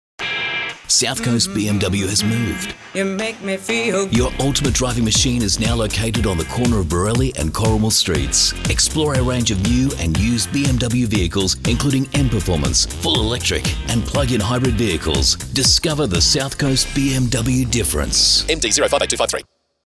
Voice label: english australian automotive